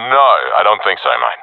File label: disagree, professional epic; vo; Source Model Packs; Counter Strike Model Pack; CS2